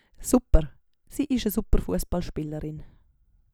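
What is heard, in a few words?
"supper", Swiss German vocabulary; Wortschatz Kapitel 7; Kapitel 7 Zusatzwortschatz 1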